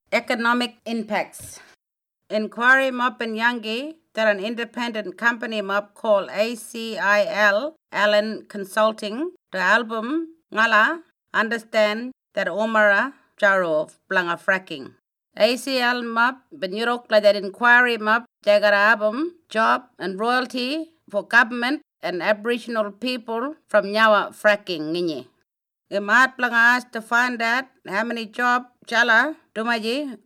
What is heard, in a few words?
Ngarinyaman